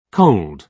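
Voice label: "cold", British English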